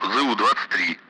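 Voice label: Speech Sound Russian Common Allied Flight; AirDefence; ZU-23; DCS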